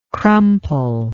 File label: "crumple", english